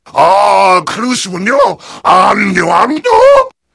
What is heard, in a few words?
heavy domination